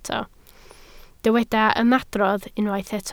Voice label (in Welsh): "ymadrodd", Welsh